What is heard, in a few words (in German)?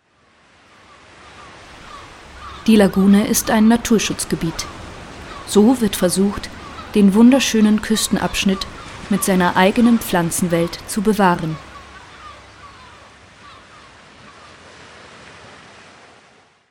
doku